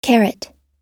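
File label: "carrot" US English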